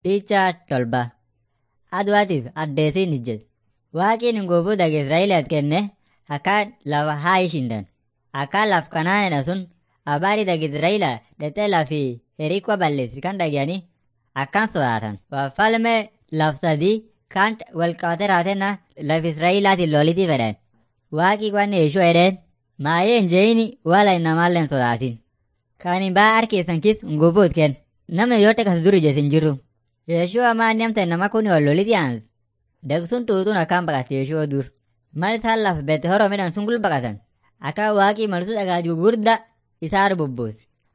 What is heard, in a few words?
afan munyoyaya